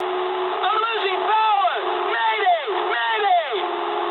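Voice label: damaged engine mood low, speech English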